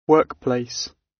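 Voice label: "workplace", British; Business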